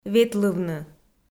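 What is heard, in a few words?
"ветлывны", Komi, female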